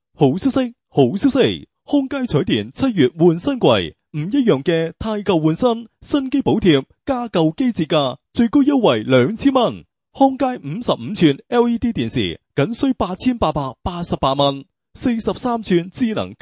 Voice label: 粤语男 彩电; 康佳

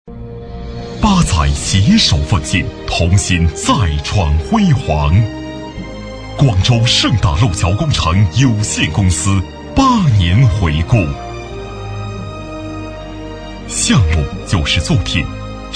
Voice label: 男 汇报 温情大气

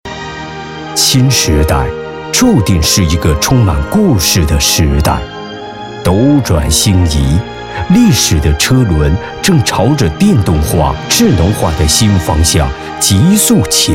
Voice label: 男 宣传; 宁德

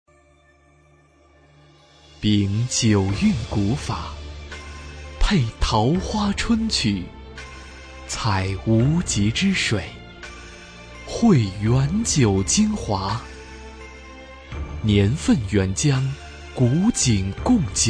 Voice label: 男 广告; 古井贡酒

